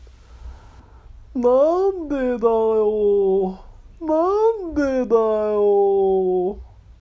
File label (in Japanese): なんでだよ 男性